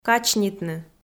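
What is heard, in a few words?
"качнитны", Komi, female; kpv audio dict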